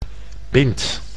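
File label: "pint", Dutch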